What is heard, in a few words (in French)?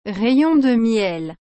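"rayon de miel", French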